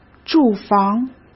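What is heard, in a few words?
"住房", Mandarin Chinese; chinese flashcards; hsk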